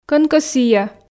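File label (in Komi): кӧнкӧ сійӧ, Komi, female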